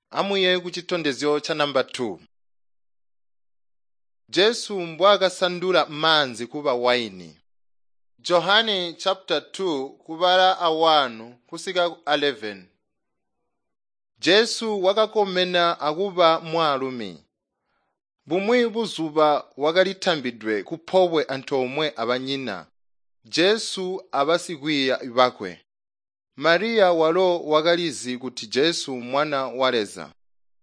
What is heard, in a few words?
tonga plateau, drama; chitonga bsz nt drama